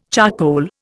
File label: "charcoal", Indian